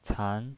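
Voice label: "can", Mandarin Chinese, second tone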